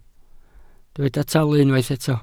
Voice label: "talu", Welsh